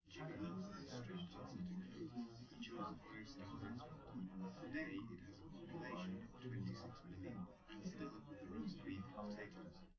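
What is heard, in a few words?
male, live recording; SiSEC11; underdetermined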